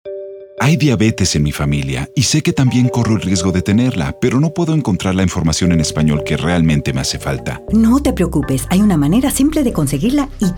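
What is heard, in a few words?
radio spanish; diabetes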